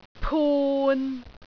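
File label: "pun6", Cantonese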